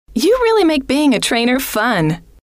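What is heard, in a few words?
you Really Make Being A Trainer Fun, female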